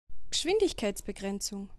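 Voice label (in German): "Geschwindigkeitsbegrenzung", German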